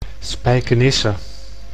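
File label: "Spijkenisse", Dutch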